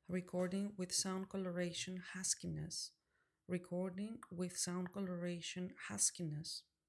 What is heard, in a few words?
Sound Coloration Huskiness; maono ai wave t5